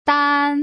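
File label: "dan", Mandarin Chinese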